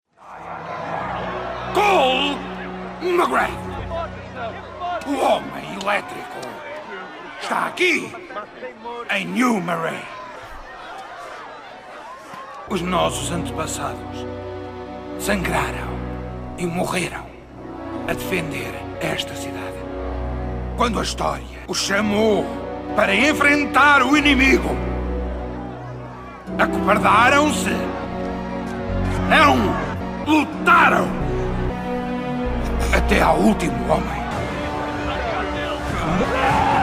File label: portuguese video games